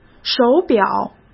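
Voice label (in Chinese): "手表", Mandarin Chinese; hsk; chinese-flashcards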